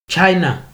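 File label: "china", Nigerian Pidgin, male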